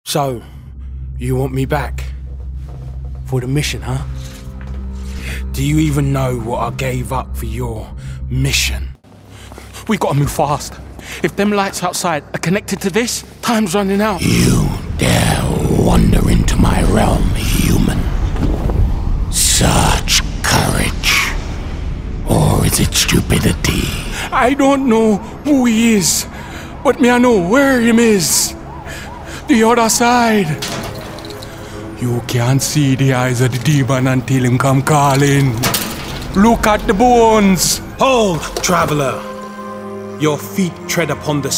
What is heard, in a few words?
Computer Games, 30s-40s. Male. London